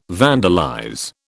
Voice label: "vandalize", British